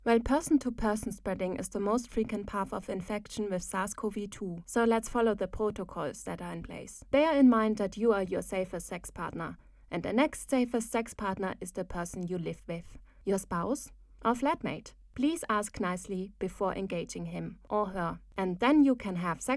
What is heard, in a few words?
German English Sample; How To Be Sexual